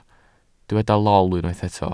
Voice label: "lol", Welsh